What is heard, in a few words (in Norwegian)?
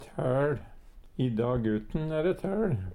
tael, Numedalsmål; Substantiv hankjønn